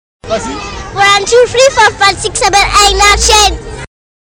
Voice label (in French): anglais; one, two, three, four, five, six, seven, eight, nine, ten